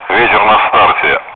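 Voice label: Speech, Russian, air traffic control; wind at start; DCS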